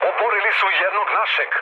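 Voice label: Serbian aircraft destroyed mood low, speech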